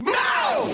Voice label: "no", male shout; Voice; expletives